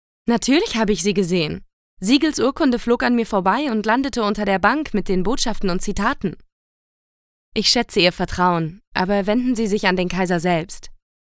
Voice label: GERMAN